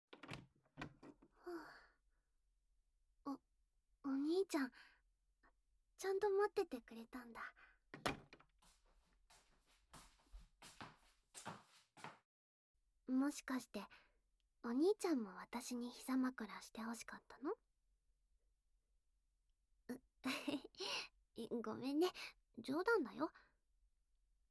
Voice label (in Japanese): 耳かきしてあげるね♪, ASMR, 耳かき, KU100; お兄ちゃん大好きASMRシリーズ; しっかり妹さなとの甘々な日常